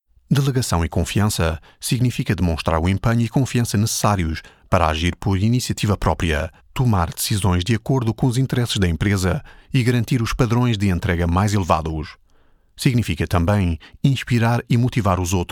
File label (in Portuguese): Portuguese